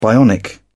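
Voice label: "bionic", British English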